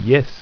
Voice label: "yes", ido

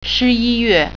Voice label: "nov", Mandarin

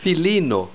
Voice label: "filino", esperanto

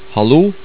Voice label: "hallo", Norwegian

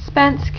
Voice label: "spansk", Danish; Spanish

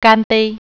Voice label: "cheers", Mandarin

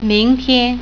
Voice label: tomorrow, mandarin

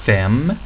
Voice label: "fem", Swedish

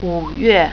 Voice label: "may", Mandarin

